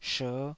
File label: "sher5", taiwanese Syllables